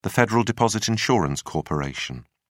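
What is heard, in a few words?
"federal deposit insurance corporation", British English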